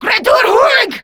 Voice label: Reload Grineer Female; Warframe; Battle Barks